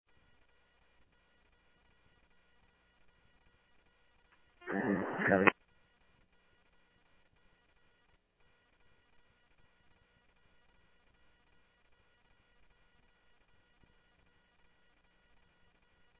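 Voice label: Mesa Police Department, scanner audio; MESA PD 1 Fiesta; Mesa Simulcast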